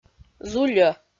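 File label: "зульӧ", Komi, female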